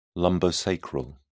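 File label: "lumbosacral", British English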